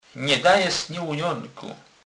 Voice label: "lunonek", kurpiow

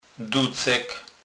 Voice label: "ducek", kurpiow

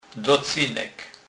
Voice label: "docynek", kurpiow

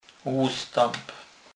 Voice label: "lustep", kurpiow

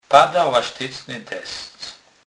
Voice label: "lastycny", kurpiow

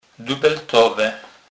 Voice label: "dubeltowe", kurpiow

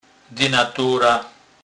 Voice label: "dynatora", kurpiow

